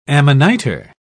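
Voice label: "amanita", English, British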